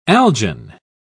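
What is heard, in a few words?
"algin", British English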